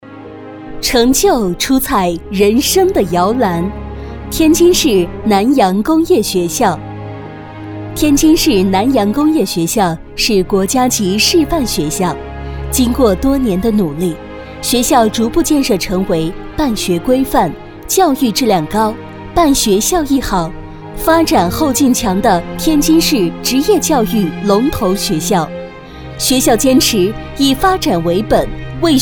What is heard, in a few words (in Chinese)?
女 专题, 国语女声配音; 天津市南洋工业学校